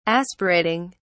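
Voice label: "aspirating", English, British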